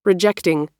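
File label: "rejecting", American English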